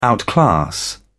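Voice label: "outclass", British English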